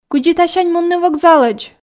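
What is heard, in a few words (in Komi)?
кыдзи тасянь мунны вокзалӧдз, Komi, female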